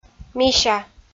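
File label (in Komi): "мися", Komi, female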